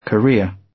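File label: "career" British; Business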